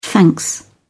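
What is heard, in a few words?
"thanks", English, British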